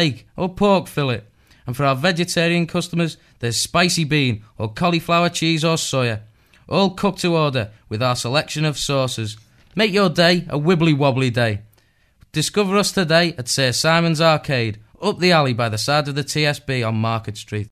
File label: ad burger bar; wibbly wobbly burger bar (no bed); bailrigg fm